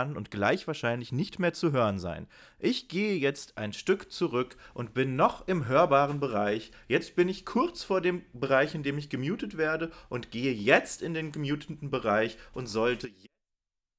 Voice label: lewitt ray mute by distance